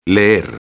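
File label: "leer" Spanish; modos tempos verbais; subjuntivo; preterito imperfecto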